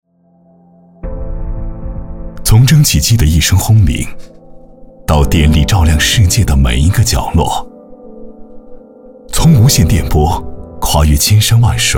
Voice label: 男 宣传片 人工智能AI 科技讲述感

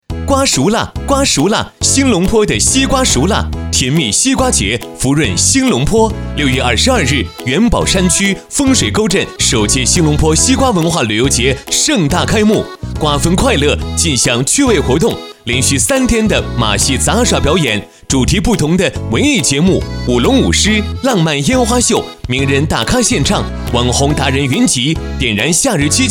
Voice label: 男 广告 活力 西瓜

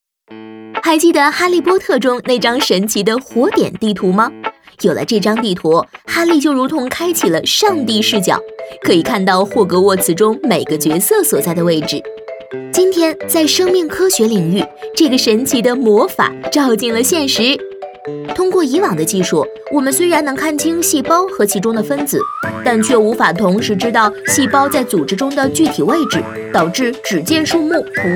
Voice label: 女 病毒解说诙谐幽默轻快; 飞碟说; 生命科学时空组学

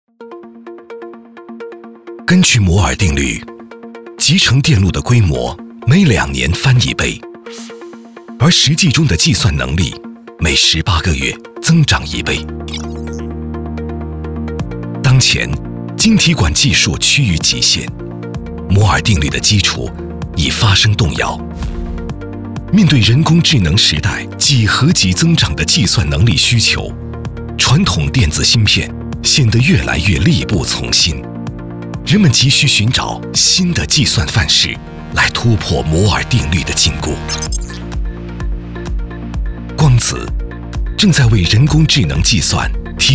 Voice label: 男 宣传片 科技感宣传片; 光子人工智能

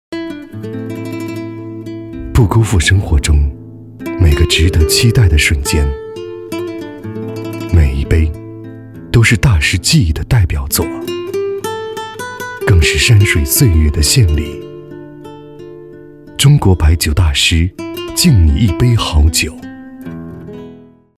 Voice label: 男 CCTV 品质白酒; 长乐未央

